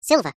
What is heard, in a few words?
cartoon voice high pitched says "silver"